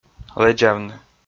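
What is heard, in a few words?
"лэдзавны", Komi, male; kpv audio dict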